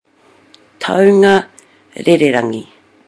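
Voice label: "taunga rererangi", Māori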